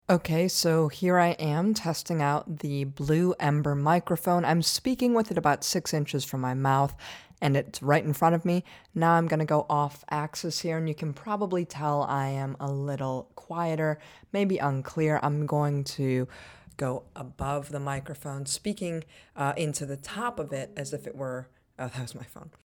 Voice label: mic test; Blue Ember

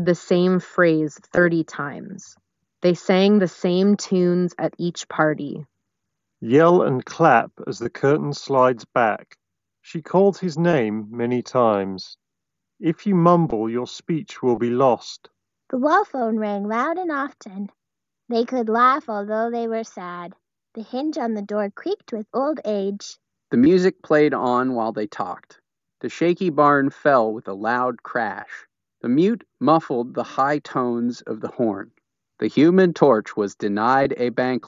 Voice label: Beats Powerbeats Pro Ideal microphone sample

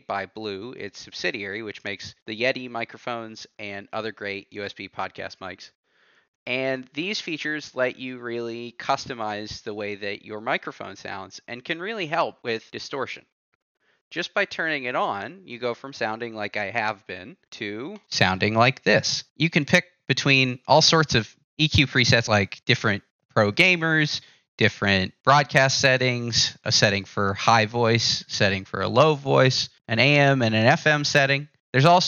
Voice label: Logitech G Pro X Wireless Mic

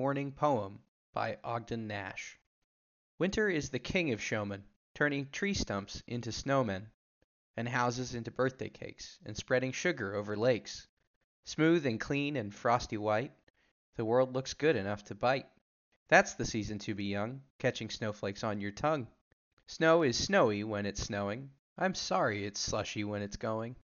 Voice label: Corsair Void Elite gaming headset Mic Sample

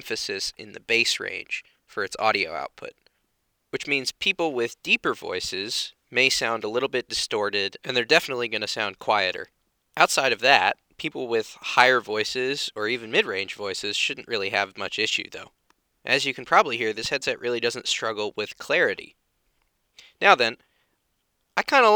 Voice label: Corsair HS60 Pro Surround mic

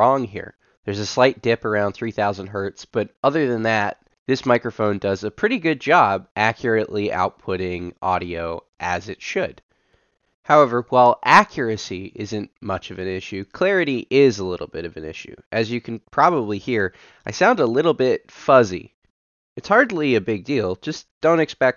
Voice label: Thresher Ultimate Mic Sample

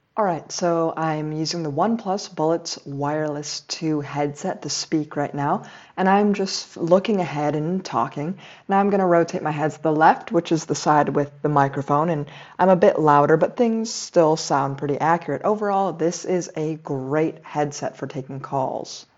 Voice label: OnePlus Bullets Wireless 2 microphone

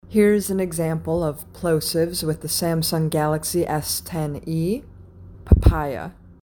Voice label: Plosives effect microphone

